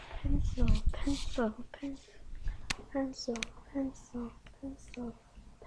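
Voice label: "pencil", spoken word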